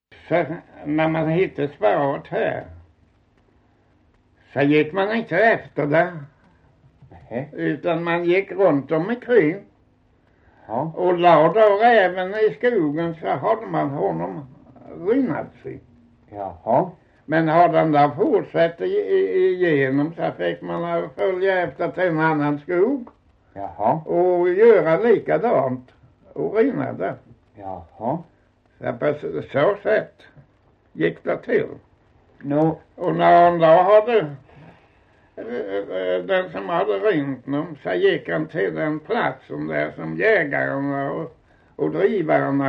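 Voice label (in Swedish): Osby Skåne, dialekter; Folkminnen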